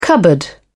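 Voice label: "cupboard", British English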